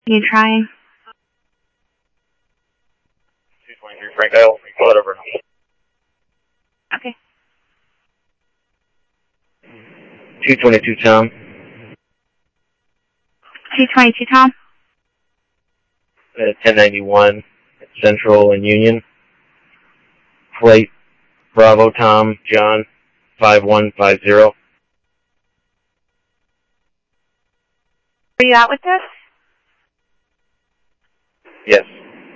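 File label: police department, scanner audio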